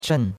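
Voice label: "chen4", Chinese; Cours de Chinois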